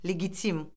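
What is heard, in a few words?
"legitim", Azerbaijani; Orfoqrafiya Lüğəti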